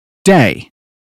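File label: "day", British phonetics; 英语音标发音（剑桥版）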